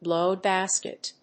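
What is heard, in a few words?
"blow a gasket", English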